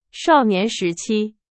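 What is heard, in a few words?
Chinese, example sentence; hsk2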